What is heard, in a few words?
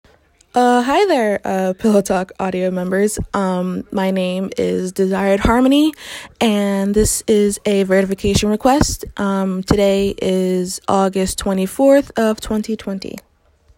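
Female Verification Request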